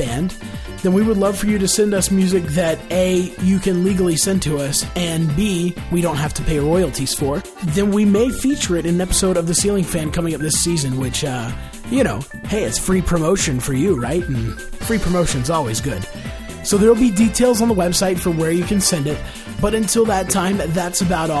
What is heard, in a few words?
Special Announcement